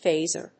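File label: "phaser", English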